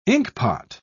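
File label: "inkpot", British English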